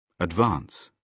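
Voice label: "advance", British English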